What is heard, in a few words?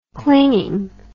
"clinging", English